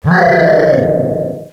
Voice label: "nooo", Echo inside caves, underground; DS; ML BOWSERS INSIDE

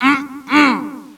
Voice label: "mmhm", echo inside caves, underground; DS; ML BOWSERS INSIDE; Luigi

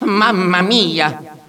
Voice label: mama mia (panic), Echo inside caves, underground; DS; ML BOWSERS INSIDE; Luigi

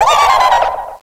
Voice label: weededede, Echo inside caves, underground; DS; ML BOWSERS INSIDE; Fawful

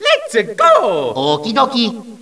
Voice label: "lets-a-go, okey dokey" (echo effect); DS; ML BOWSERSINSIDE; Mario and Luigi

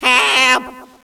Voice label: "heeelp", echo inside caves, underground; DS; ML BOWSERS INSIDE; Luigi

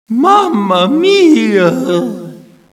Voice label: mama mia, Echo inside caves, underground; DS; ML BOWSERSINSIDE; Mario

